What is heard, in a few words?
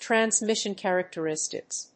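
"Transmission characteristics", English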